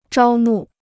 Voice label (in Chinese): "招募", Chinese